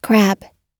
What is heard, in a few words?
"crab", us